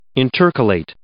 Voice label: "intercalate", English